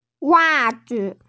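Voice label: "wa zi", Mandarin Chinese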